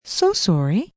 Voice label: So Sorry F, female, 'so sorry', (human voice speaking); Etiquette